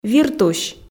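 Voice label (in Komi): "виртусь", Komi, female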